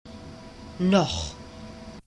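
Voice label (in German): "noch", German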